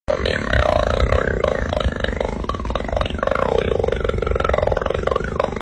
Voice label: hablando grueso

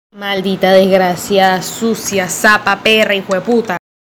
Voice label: insultando

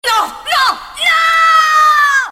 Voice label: no no nooooo mujer

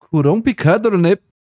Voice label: complicado japones

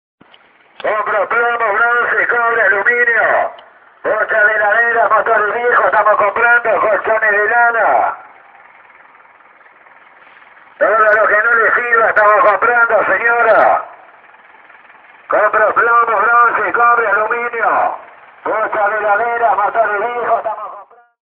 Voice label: compro chatarra megafono

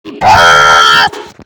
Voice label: gritando

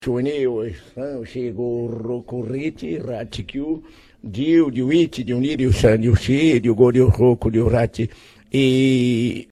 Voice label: japones; it ni san xi go roku

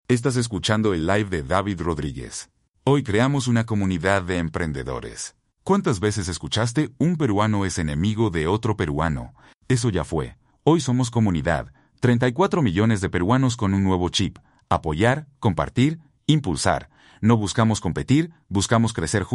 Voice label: locutor

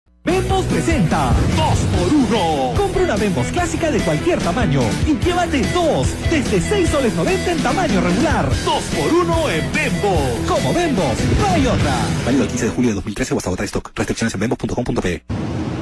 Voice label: comercial 2x1 peru; bembos 2013

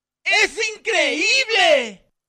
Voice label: es increible en coro

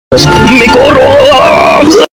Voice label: me corrooo saturado

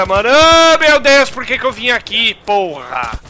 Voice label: holandes rage leve

